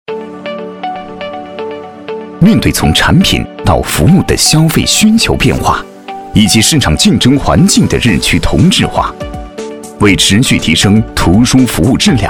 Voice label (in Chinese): 宣传片